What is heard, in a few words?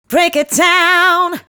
Break It Down, Female Vocals